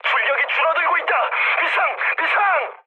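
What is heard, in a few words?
Korean damaged engine mood med; speech; War Thunder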